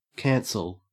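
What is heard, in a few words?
"cancel", Australian English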